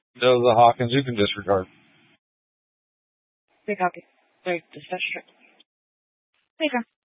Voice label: Police Department Dispatch; Grand Junction